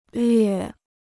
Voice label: "略", Chinese

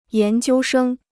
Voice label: "研究生", Chinese

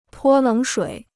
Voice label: "泼冷水", Chinese

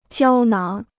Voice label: "胶囊", Chinese

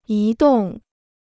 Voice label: "移动", Chinese; yí dòng; to move; movement

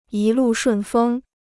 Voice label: "一路顺风", Chinese

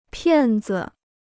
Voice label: "骗子", Chinese

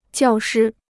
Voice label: "教师", Chinese

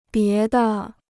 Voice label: "别的", Chinese

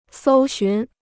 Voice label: "搜寻", Chinese

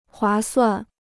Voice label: "划算", Chinese

